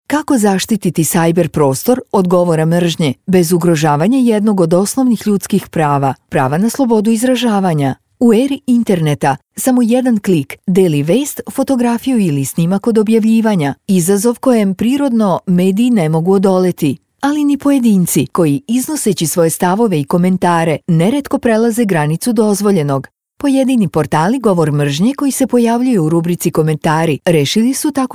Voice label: rs explainer